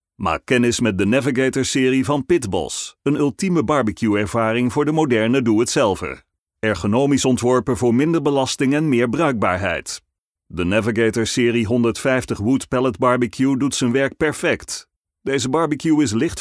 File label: nl vo macho